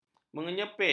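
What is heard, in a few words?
"menyepit", Malay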